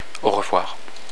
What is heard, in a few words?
"bye", french